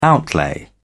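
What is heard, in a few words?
"outlay", British English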